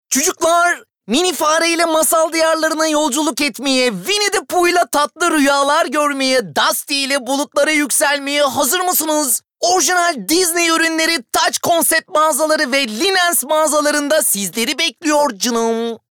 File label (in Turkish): ANIMASYON